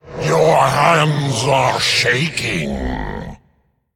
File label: Male Faceless Play